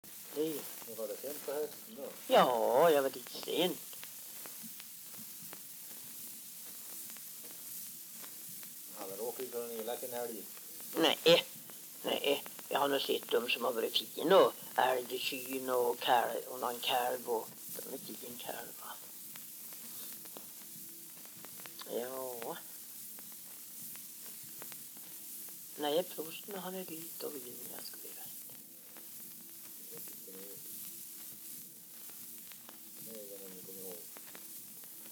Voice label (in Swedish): dialekter; Folkminnen